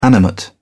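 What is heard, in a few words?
"animate", British English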